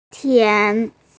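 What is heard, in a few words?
"tian2" Chinese, female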